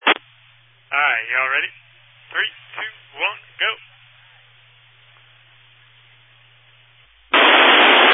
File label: Family Radio Service (FRS)